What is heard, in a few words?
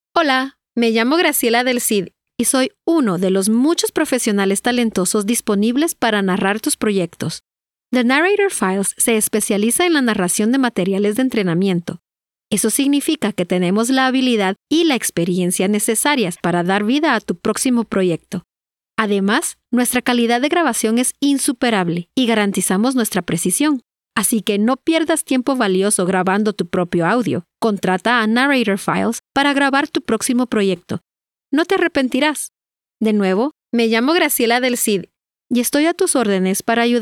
Spanish; Female